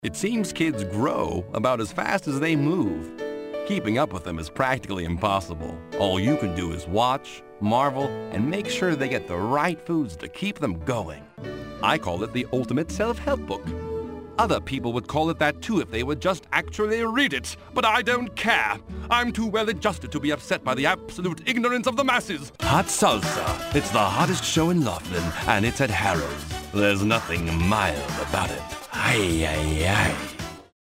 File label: coml, voiceover